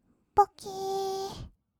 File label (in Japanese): "ぼけーっ", kawaii voice; ASMR; 色々なワンショット